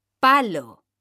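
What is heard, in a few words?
"palo", Spanish, female; mekanta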